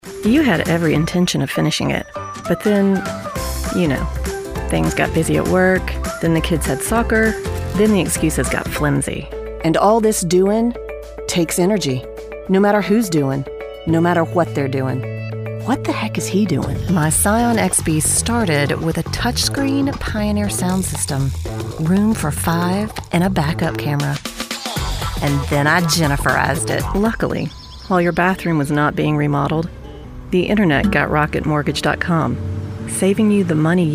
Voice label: Commercial

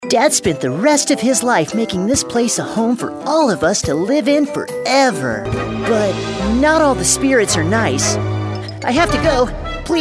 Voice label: Character Cartoon

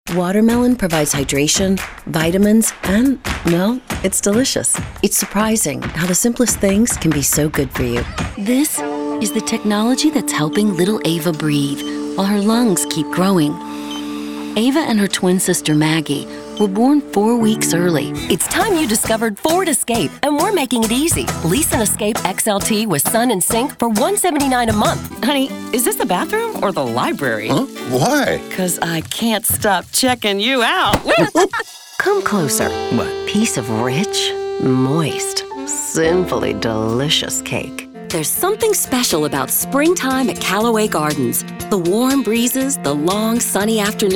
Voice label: Commercial

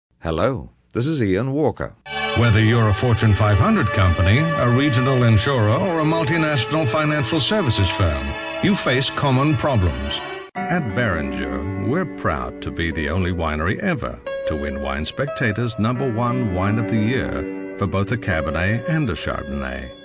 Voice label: brit

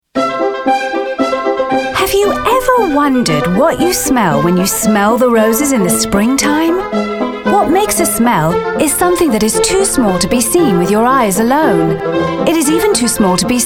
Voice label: narrative

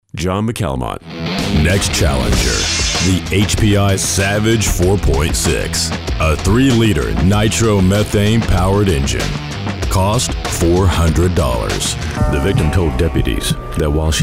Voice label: Narr

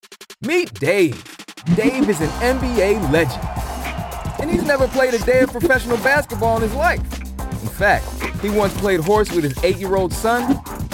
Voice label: Commercial

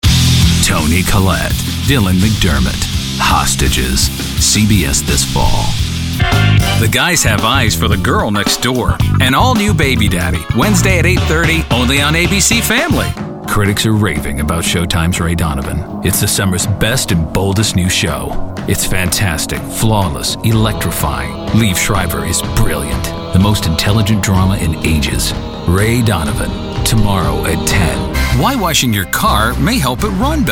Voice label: Promo

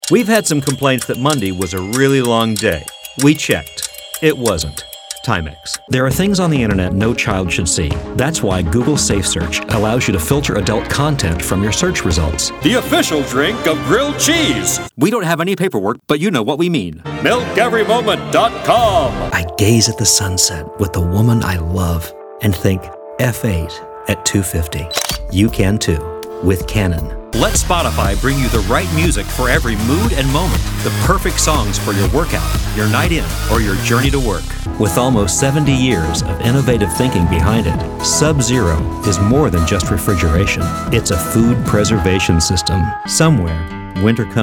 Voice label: commercial

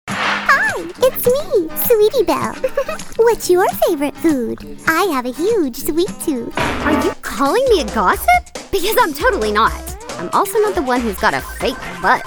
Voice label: Animation

